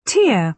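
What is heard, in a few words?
"tear", British English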